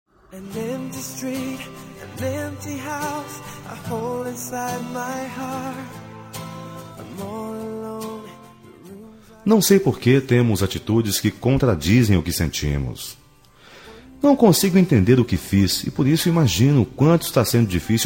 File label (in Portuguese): Amizade Com Pedido de Desculpas, M